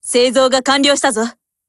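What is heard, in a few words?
Japanese, Voice; BUILD OVER; GF Alarm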